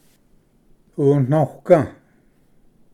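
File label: "unoga", Cherokee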